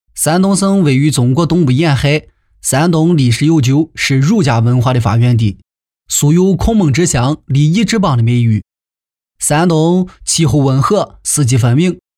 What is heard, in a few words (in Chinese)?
方言 山东; 山东省